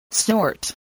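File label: "snort", English word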